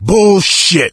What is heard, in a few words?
reaction negative, survivor voice